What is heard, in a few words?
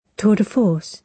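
"tour de force", British English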